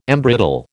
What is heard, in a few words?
"embrittle", American